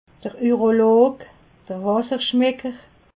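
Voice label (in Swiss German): "urolog", Alsatian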